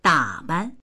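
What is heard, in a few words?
"da3ban", Chinese; 打扮; 装う、化粧する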